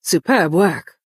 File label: Bosmer female nice play